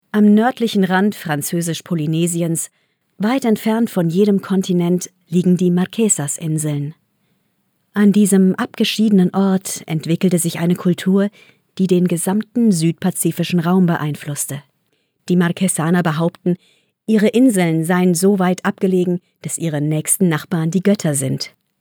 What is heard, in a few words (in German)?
Neutral; Fremdtake